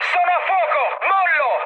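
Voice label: Italian on fire mood high, speech; War Thunder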